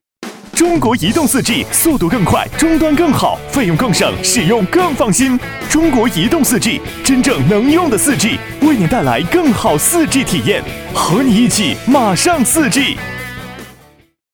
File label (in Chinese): 男 大音; 云南移动4G15秒版